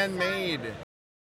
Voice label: Male Radio; NYCC 2013